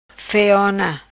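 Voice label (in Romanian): "Feiona", Macanese Patuá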